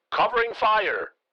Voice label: Military radio voice command; covering fire